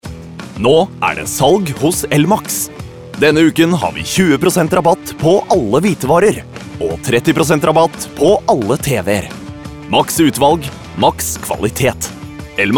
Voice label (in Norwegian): no reklame